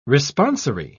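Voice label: "responsory", British English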